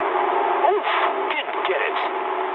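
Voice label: English bomb failure mood low; War Thunder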